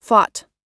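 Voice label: "fought", US English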